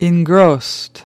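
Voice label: "engrossed", English word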